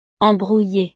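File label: "embrouillee", French; estados animo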